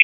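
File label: Police District Tactical, p25; wake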